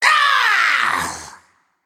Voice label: Female Naga Death

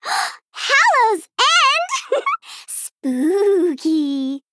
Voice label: Female Gnome HAPPY HALLOWEEN

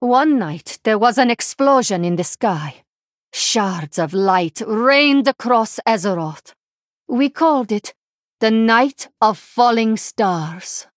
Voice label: Female Draenei

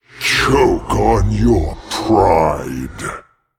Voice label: Male Demon; Mal Ganis; Uther

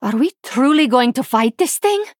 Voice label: Female Draenei Intro

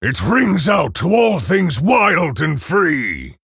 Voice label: Male Orc Ogre mirror start

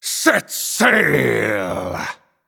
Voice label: Male Night Elf Emote Picked

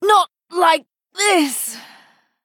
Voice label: Female Night Elf Death

